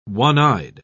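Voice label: "one-eyed", English, British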